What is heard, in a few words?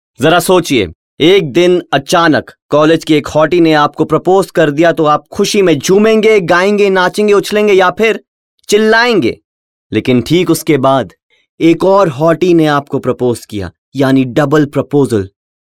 Hindi, male voice